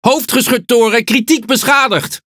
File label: NL Speech Defective Modules Main Gun Damaged; World Of Warships